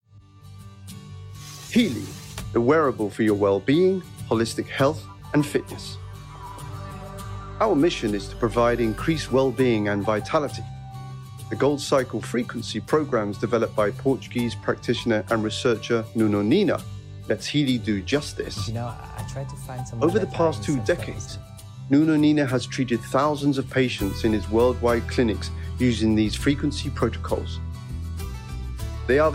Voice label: Product Promotion, Smooth Upbeat Product Intro; HEALY 2